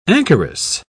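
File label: "anchoress", English, British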